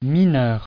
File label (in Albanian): "mineur", frengjisht